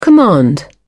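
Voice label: "command", English, British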